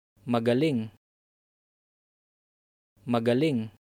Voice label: "Well done", Tagalog